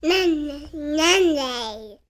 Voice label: human toddler 18 months boy say "yummy yummy"

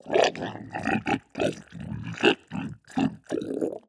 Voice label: FUN MONSTER Mutant husky talk gibberish

